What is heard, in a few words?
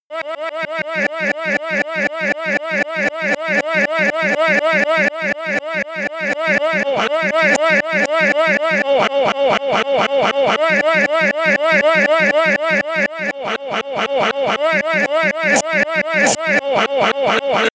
glitch talk fx